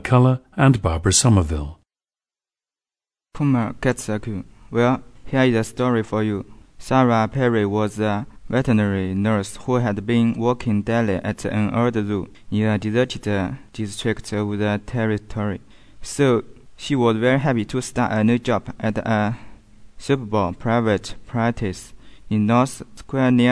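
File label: jiangsu, dialects of English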